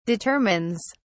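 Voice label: "determines", British English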